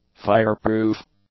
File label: "fire-proof", english; adjectives